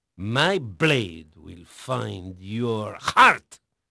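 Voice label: Spanish male, voice; Pirates Of The Caribbean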